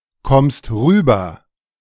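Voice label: "kommst rüber", German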